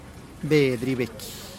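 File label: "bedih geiik", Teotitlán del Valle Zapotec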